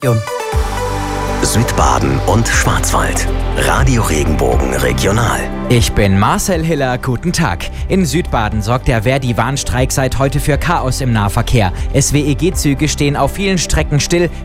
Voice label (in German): Regionalnachrichten Freiburg; Radio Regenbogen